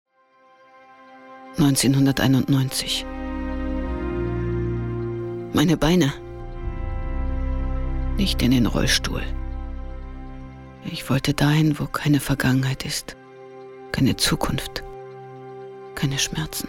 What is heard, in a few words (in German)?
Funkspot; DGUV GOLD